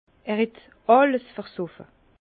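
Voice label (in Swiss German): "allesversoffe", Alsace Bas Rhin; vin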